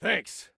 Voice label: Thanks, Soldier Male; Soldier Male 02